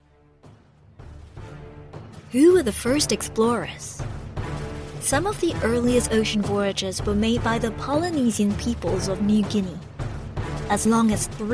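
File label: Chinese E-Learning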